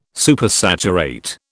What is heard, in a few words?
"Supersaturate", British